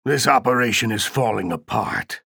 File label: chatter negative; ranger; respawn